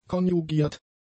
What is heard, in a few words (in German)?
"konjugiert", German; adjectives